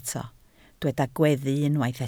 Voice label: "gweddu", Welsh